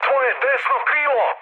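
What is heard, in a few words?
Serbian right wing mood med; speech; War Thunder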